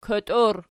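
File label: "koti" plural, Archi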